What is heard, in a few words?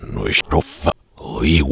wheel of fortune, voiceover reversed